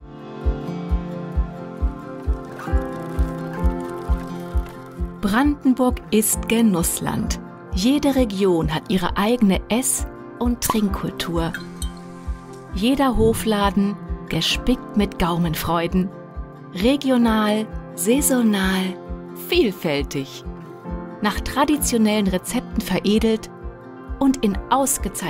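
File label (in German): Imagefilm Hofläden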